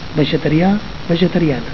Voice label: "vegeteria", Catalan